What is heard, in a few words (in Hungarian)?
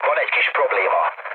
aircraft damaged mood med, hun; War Thunder